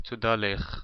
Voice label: thank you in hebrew to a female